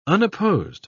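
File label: "unopposed", British English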